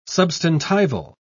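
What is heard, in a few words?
"substantival", British English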